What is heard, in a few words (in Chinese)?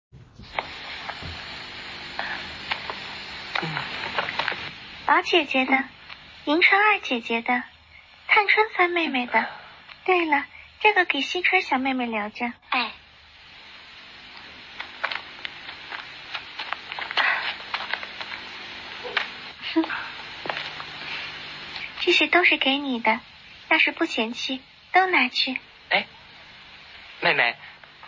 角色配音; 红楼梦; 选段林黛玉